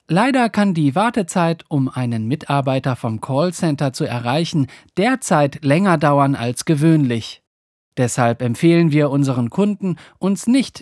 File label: german ivr